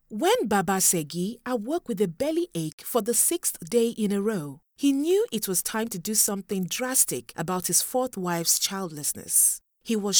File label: english african audiobooks